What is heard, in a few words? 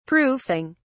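"proofing", British English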